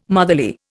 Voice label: "motherly", Indian